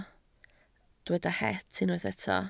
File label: "het", Welsh